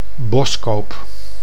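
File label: "Boskoop", Dutch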